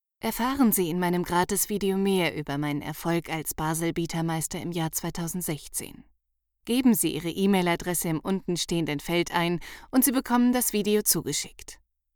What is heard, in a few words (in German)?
Werbetext